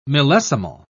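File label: "millesimal", British English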